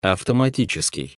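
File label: Russian "автоматический"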